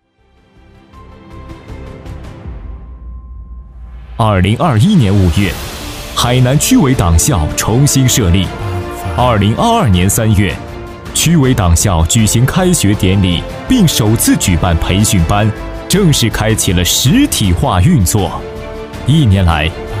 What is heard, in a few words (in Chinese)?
男 党政 总结回顾片; 2022年度区委党校